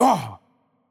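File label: Greek hurt light voice; bloom minigame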